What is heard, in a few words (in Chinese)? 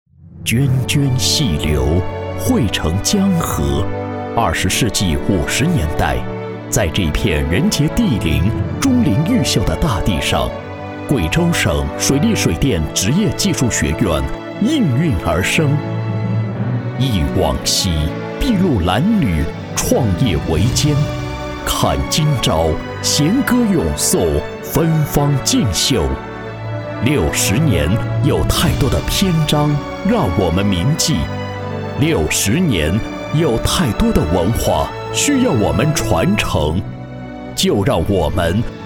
男 宣传片 校庆宣传片; 水院; 筑梦水利 求索未来